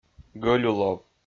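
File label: "гӧлюлов", Komi, male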